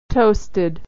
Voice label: "toasted", pronunciation

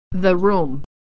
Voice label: "room", pronunciation

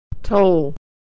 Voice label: "toll", pronunciation

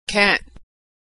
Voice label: "cant", Authentic American Pronunciation; Consonant Sound Pair; Negative Verb Forms

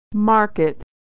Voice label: "market", pronunciation

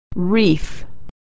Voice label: "reef", pronunciation

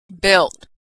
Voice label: verb "built", pronunciation

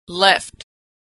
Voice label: verb "left", pronunciation

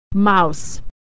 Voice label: "mouse", pronunciation

